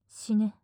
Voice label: 死ね・近・右, ASMR

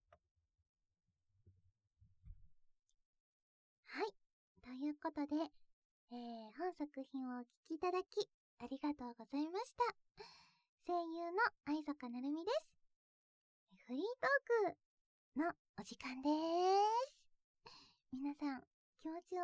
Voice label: フリートーク; 女尊教2

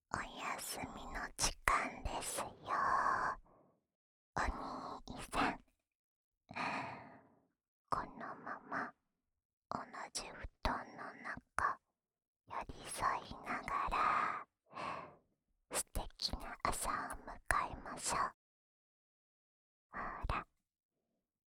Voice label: 安眠用添い寝ループ（右耳）, ASMR; 耳かきリフレ『春乃撫子』へようこそ♪～至極のロリ店長と、癒やされヌかされ温泉旅行。着床オプション付き!

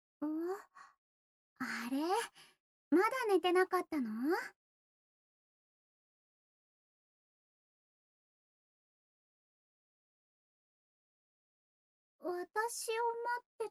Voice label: ささやきラブラブボイス; いっしょぐらし ～会社の上司彼女編～; 大久保瑠美

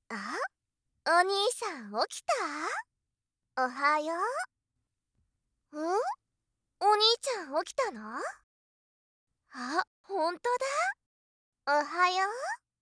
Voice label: ルール説明と自己紹介, ASMR; 催眠音声; 性格一致姉妹

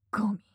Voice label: ゴミ・強く・近・右; マゾオスのあなたに敗北射精の快楽を叩き込む音声

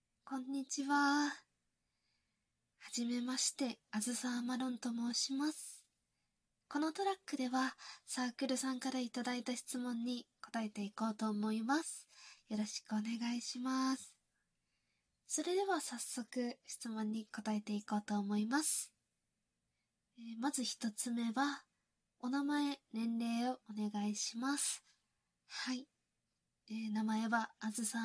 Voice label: ごあいさつとインタビュー, ASMR

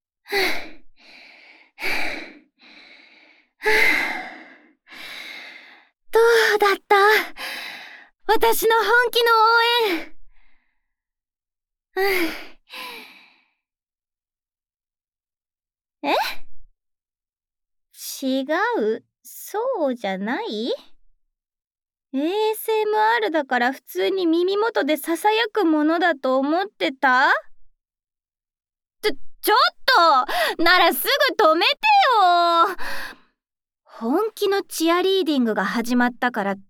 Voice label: 囁き応援ASMR; 耳元応援; がんばれ、がんばれ♪; おしごとねいろ 〜チアリーダー編〜

